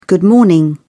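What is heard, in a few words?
"good morning", British English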